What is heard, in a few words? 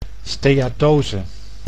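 "steatose", Dutch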